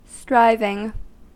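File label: "striving", US English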